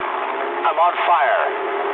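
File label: English on fire mood low; speech; War Thunder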